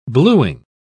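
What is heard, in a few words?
"blueing", British English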